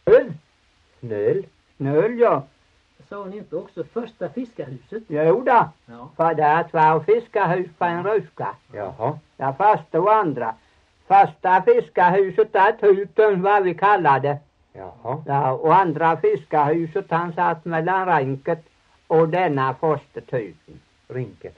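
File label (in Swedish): Torhamn Blekinge, dialekter; Folkminnen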